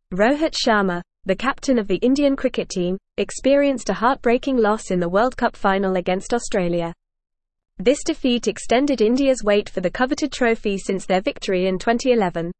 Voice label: English Newsroom Advanced FAST Reading; Rohit Sharma The Unsung Hero of Indian Cricket

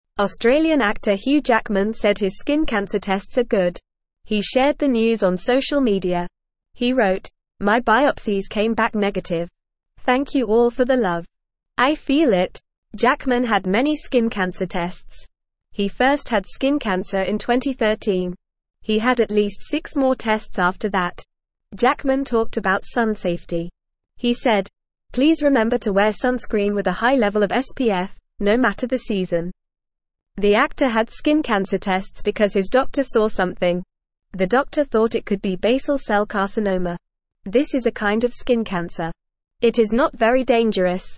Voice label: English Newsroom Beginner FAST Reading; Hugh Jackman Gets Good Skin Cancer News

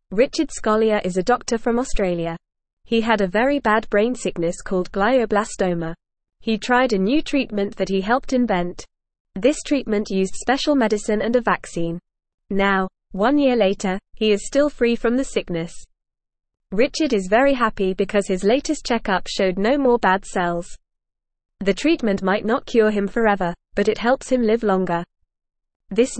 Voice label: English FAST Reading; New Treatment Helps Doctor Beat Brain Sickness

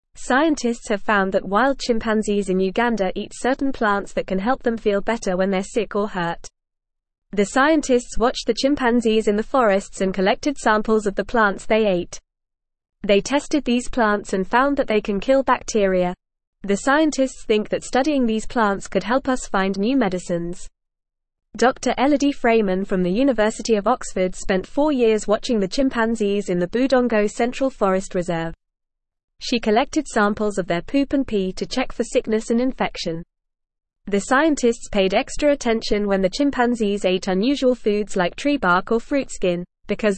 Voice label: English FAST Reading; Wild Chimpanzees in Uganda Use Plants with Medicina

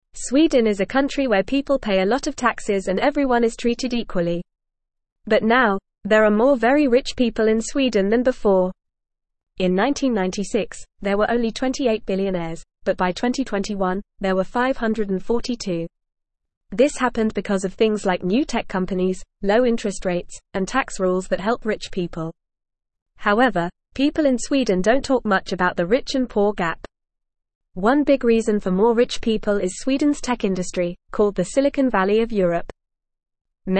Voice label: English FAST Reading; More Rich People in Sweden Why and How

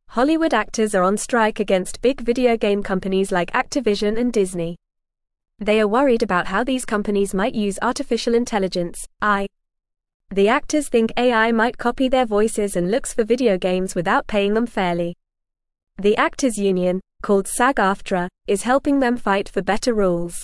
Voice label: English Lower Intermediate FAST Reading; Actors in Video Games Want Fair Treatment Now; English Newsroom

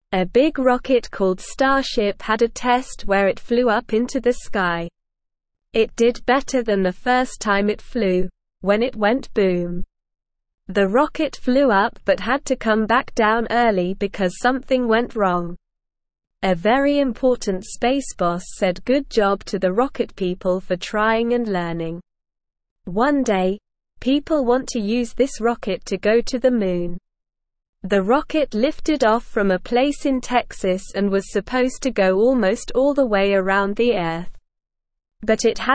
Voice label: English Beginner SLOW Reading; English Newsroom; Starship Rocket Flies High Still Learning

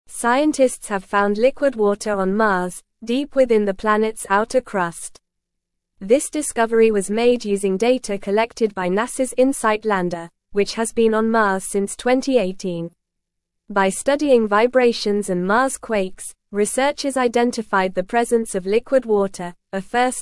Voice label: English Upper Intermediate NORMAL Reading; Discovery of Liquid Water Reservoir on Mars Revealed

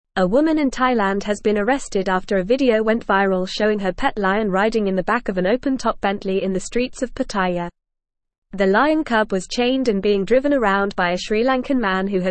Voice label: Advanced FAST Reading, English; English Newsroom; Woman Arrested After Viral Video of Lion in Bentley

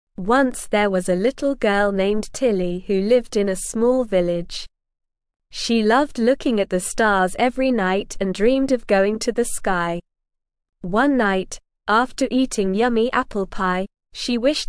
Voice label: ESL Short Stories for Kids Beginner SLOW Reading; The Journey to the Starlit Sky

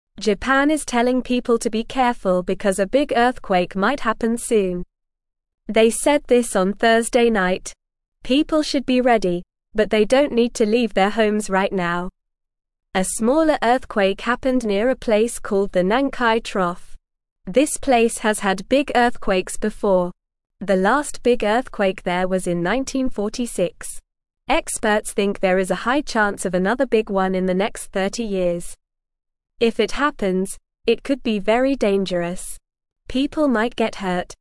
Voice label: English Beginner NORMAL Reading; Japan Warns People About Possible Big Earthquake

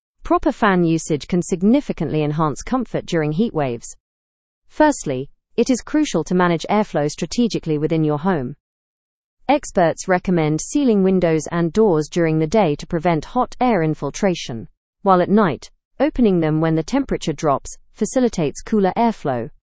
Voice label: learn english through news advanced fast; optimizing fan utilization during extreme heat

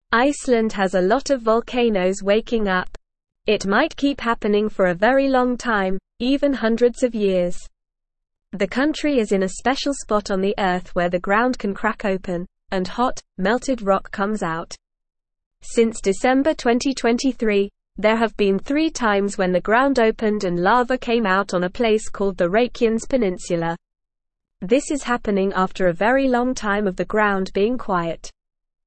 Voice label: English Beginner NORMAL Reading; Icelands Volcanoes Waking Up A Big Mystery

